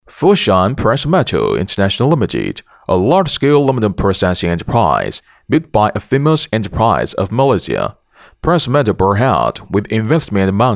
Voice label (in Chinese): 男 英 大音; Foshan Press Meta